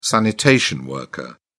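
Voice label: "sanitation worker", British English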